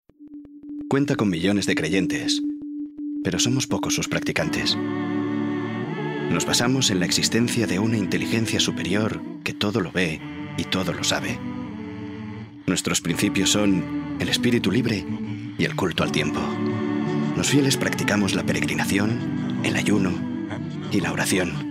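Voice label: male Spanish car commercial; ideasonora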